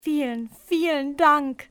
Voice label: thank, civ fmale; XBOX